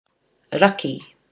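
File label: "raki", Māori; north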